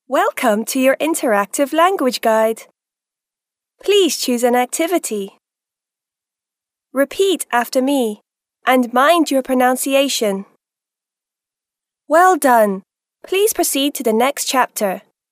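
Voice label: British English female corporate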